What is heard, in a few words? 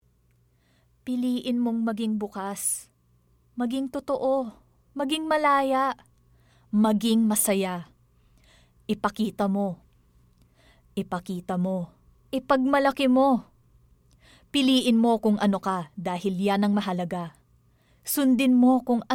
Tagalog (Conversational, Inspiring)